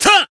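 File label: Voice attack, Japanese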